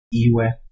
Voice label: "iwe", Proto-Germanic